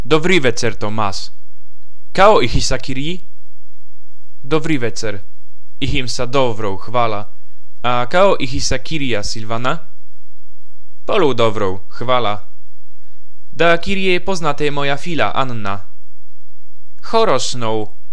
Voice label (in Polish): Lekcja dialog; surmenia